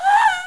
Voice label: pain; schall; aslave